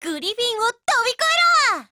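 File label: PHRASE Japanese; MPK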